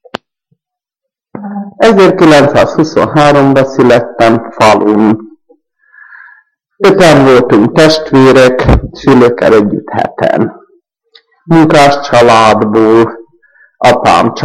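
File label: dialect collection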